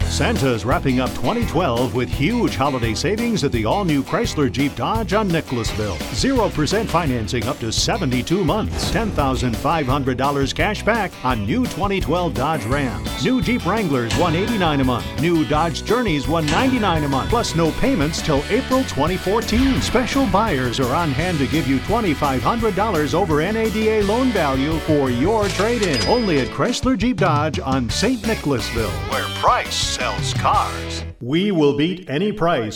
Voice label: Radio